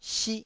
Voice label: "sih1", Taiwanese syllable

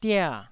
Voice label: "dia4", taiwanese syllable

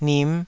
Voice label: "nim5", taiwanese

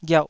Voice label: "ghiauh2", Taiwanese syllable

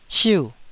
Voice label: "siu4", Taiwanese

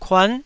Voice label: "kuan9", Taiwanese syllable

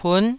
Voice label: "pun9", Taiwanese

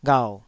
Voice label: "ghau3", Taiwanese syllable

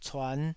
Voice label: "cuan5", Taiwanese syllable

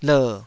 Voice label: "ler3", Taiwanese syllable

